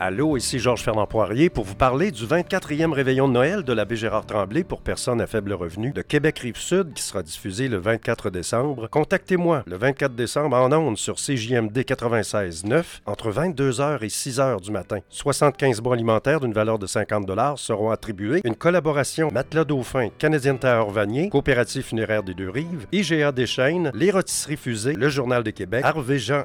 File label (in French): spot reveillon; CJMD